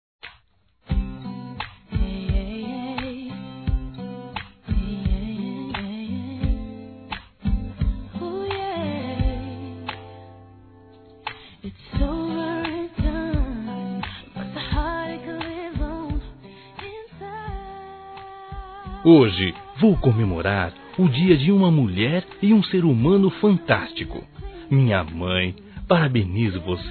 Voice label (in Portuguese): Aniversário Mãe Masculino; Aniversários; Aniv Familiar